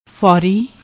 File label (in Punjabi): "fouri", Punjabi